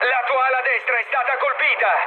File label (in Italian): right wing, mood med, speech it; War Thunder